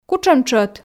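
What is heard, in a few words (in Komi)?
кутшӧм тшӧт, Komi, female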